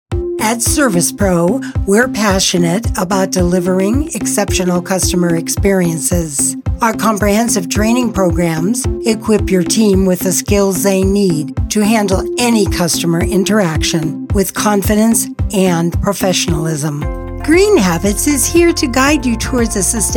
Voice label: Narration